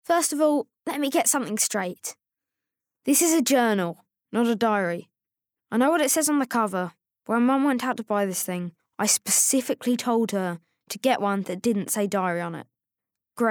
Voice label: Bookread

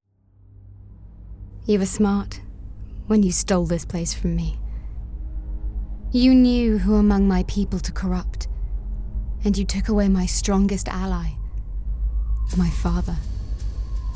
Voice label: Small Drama

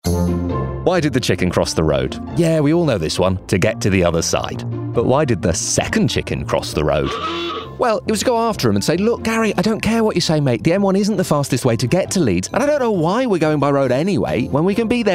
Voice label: Commercial; Virgin Trains East Coast

